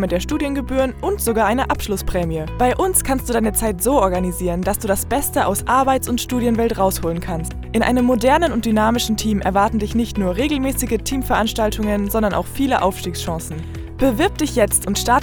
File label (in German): Werbung Sparkasse